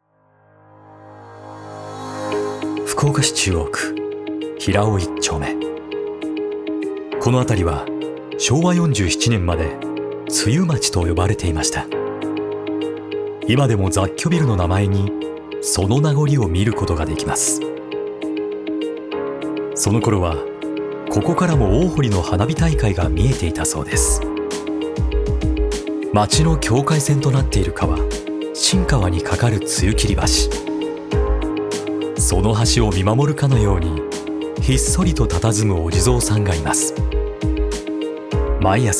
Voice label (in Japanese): モノローグ風街紹介